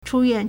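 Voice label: "chūyuàn", Chinese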